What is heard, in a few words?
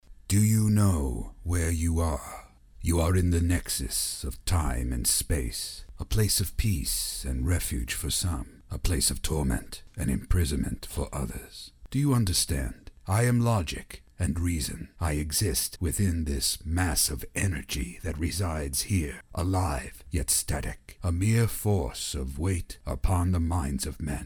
Neutral; TRUTH & REASON; animation